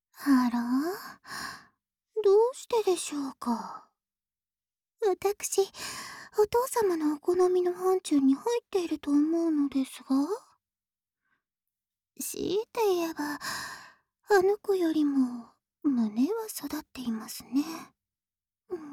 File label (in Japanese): Whisper むすめ